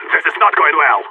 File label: scared emote; player vo phoenix